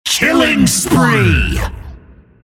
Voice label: announcer kill spree; killing spree; dota; announcer dlc monkey king